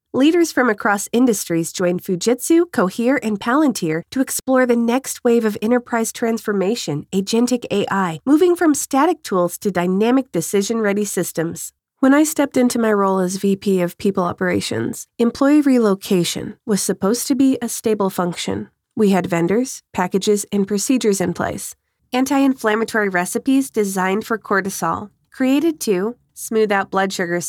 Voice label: E Learning